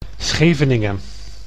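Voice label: "Scheveningen", Dutch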